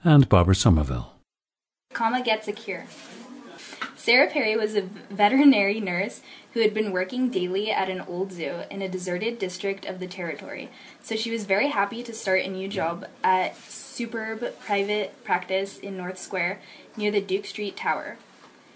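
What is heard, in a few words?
california, International Dialects of English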